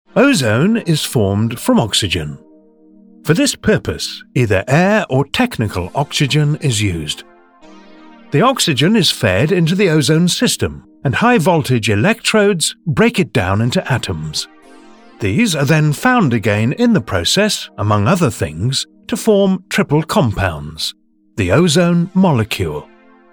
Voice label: Narration